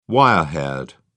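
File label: "wire haired", British English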